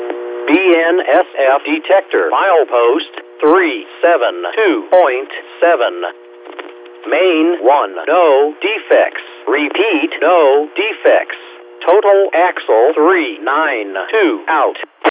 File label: defect detector